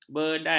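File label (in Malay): "bedan", Malay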